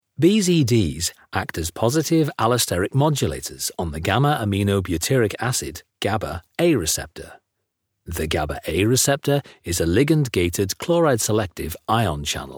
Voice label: Medical VO